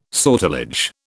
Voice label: "sortilege", British